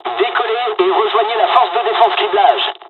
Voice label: takeoff, dialogs french; War Thunder

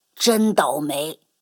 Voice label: Speech Quick Commands Caramba

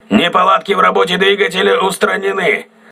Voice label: voice message engine repaired, crew ships russian

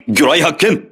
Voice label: voice message torpedo, crew ships japanese

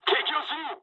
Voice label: enemy detected mood high, speech jp; War Thunder

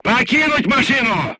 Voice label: voice message leave vehicle, crew tanks russian

